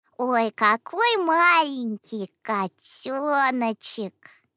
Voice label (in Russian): голос ребенка